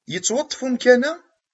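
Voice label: "Amkan-a d ilem?", Kabyle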